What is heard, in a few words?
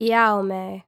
civ female heal, hellenes